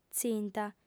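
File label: "tzinta", Sardinian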